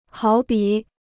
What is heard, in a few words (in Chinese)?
"好比", Chinese